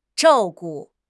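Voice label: "zhao4 gu5", Chinese; HSK; HSK3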